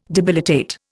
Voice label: "debilitate", Indian